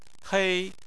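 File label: "hei", Chinese, first tone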